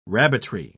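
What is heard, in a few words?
"rabbitry", English, uk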